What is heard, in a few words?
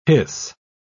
"hiss", pronounce